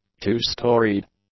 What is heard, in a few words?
"two-storied", English; adjectives